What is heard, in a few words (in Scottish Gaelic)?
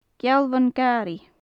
"gealbhonn-garaidh", gaelic; hedge sparrow; gealbhonnan-gàraidh, gealbhonnan nam preas; Faclan Nàdair